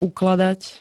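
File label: "ukladať", Slovak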